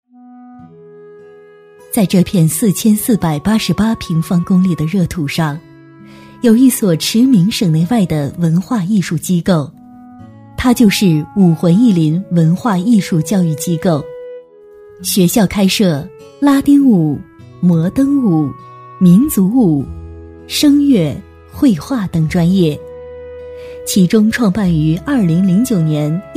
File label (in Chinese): 女 艺术学校